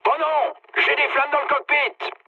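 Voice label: French on fire mood low; War Thunder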